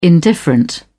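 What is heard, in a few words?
"indifferent", British English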